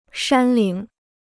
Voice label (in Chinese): "山岭", Chinese